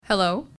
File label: Hello English